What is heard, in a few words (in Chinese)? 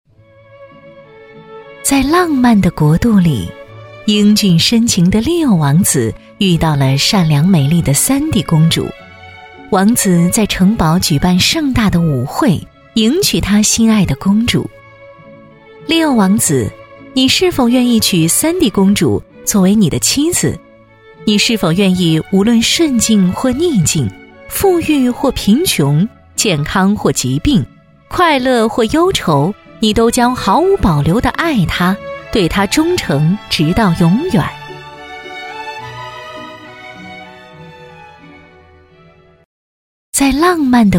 女, 有声读物 童话故事; 婚礼开场舞台